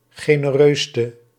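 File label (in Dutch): "genereuste", Dutch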